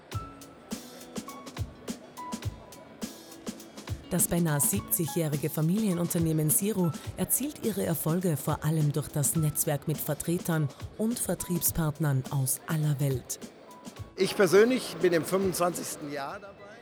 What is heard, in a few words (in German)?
promotion film; siro messe interzum